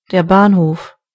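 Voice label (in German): "train station", German; gcompris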